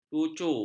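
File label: "lucu", Malay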